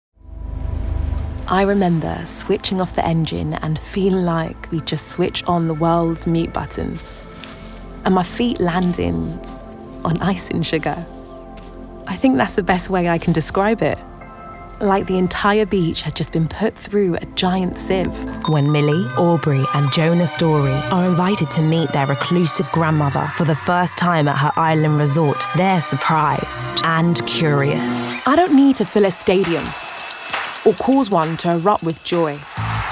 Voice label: commercial